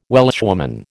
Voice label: "Welshwoman", American

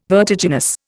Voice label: "vertiginous", Indian

